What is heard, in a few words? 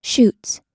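"shoots", American English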